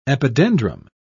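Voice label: "epidendrum", British English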